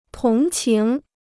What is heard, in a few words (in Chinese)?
"同情", Chinese